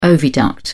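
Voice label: "oviduct", English, British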